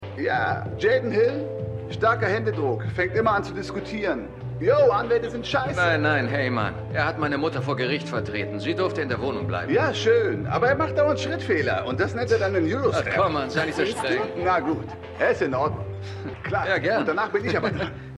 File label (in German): junger Mann; Stimmproben; Everythings Trash